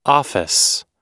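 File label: "office", American English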